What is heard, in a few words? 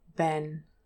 "Ben", English, DRESS /e/